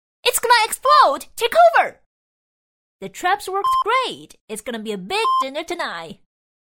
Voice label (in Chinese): 女主